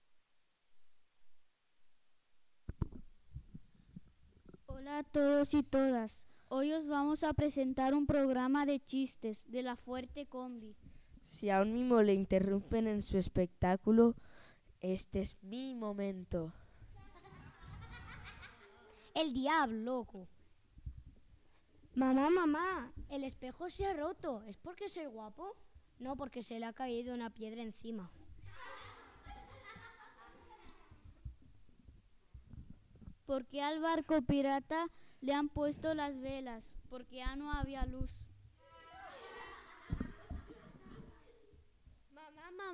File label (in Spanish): Podcast; Echate unas risa sin prisa; RÀDIO BLASCO 2025/2026